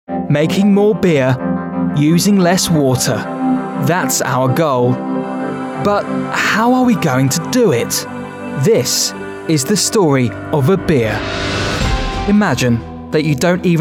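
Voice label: British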